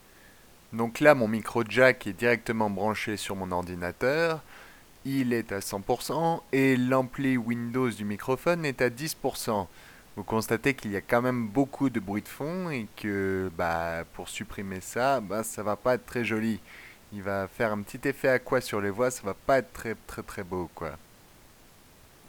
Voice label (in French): micro avec bruit de fond